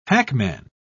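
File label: "hackman", British English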